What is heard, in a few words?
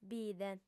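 "bide", female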